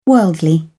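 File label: "worldly", British English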